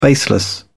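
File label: "baseless", British English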